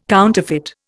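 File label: "counterfeit", Indian English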